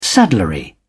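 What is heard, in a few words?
"saddlery", English, British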